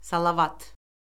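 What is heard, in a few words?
"salavat", Azerbaijani; Orfoqrafiya Lüğəti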